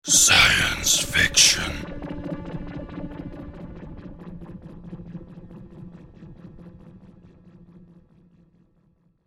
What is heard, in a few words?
Science Fiction Spoken, Science Fiction spoken in dramatic deep voice with effects.; Sci-Fi Voice